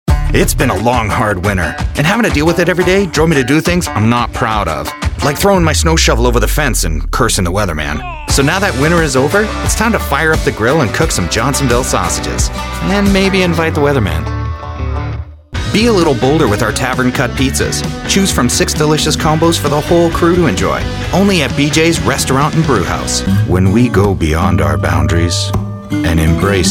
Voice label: english canadian commercial

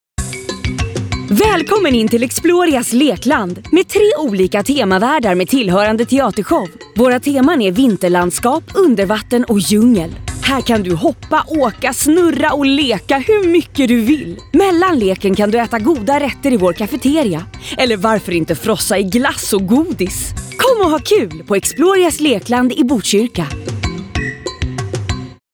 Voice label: swedish

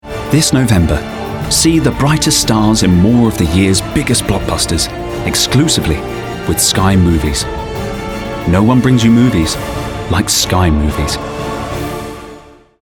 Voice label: english british promos; UK voice actor

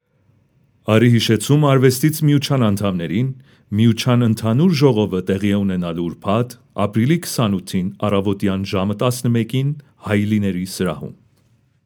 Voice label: armenian narration; Armenian Voice Actor; Farsi/Persian, English, Turkish and Armenian Voice Actor

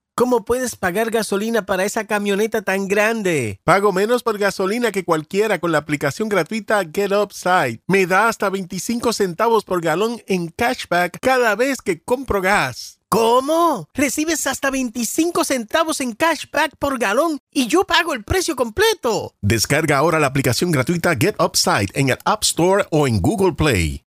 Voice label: spanish latin american promos

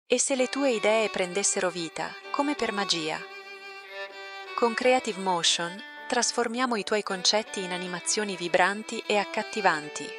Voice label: italian animation

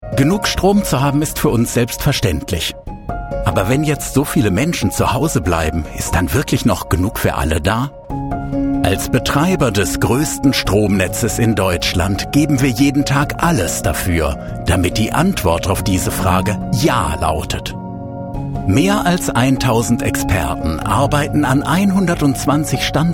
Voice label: german corporate videos

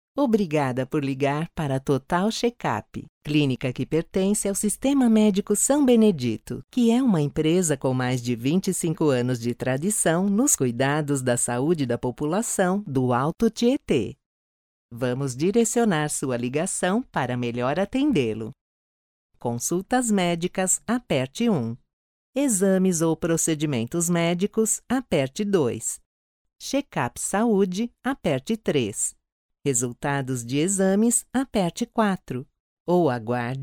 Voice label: portuguese brazilian ivr

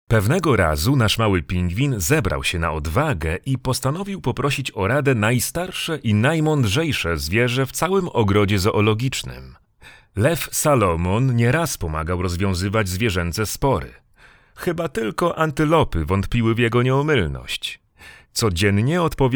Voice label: polish animation; professioneller polnischer Sprecher

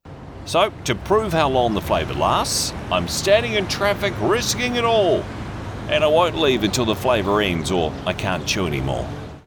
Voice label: english new zealand promos

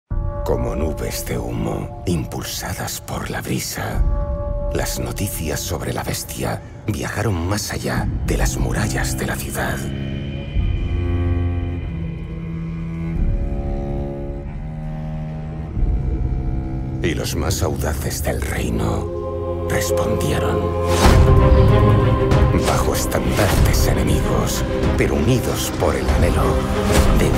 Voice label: spanish video games

